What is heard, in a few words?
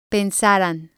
"pensaran", spanish